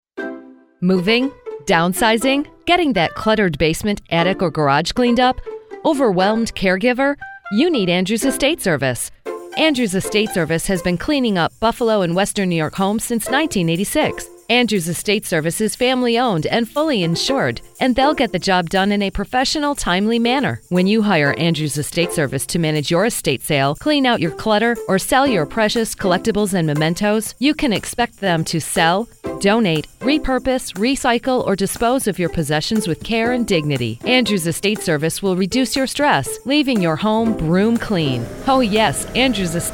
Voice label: radio commercial; andrews estate service; weck 1230 am; living senior